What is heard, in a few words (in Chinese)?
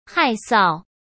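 "害臊", Mandarin Chinese; hsk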